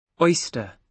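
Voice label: "oyster", British English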